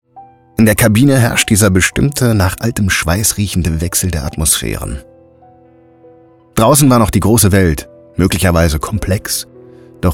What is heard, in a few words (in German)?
Erzaehlung, schauspieler